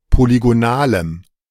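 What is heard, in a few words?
German "polygonalem"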